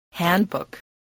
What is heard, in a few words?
"handbook", spelling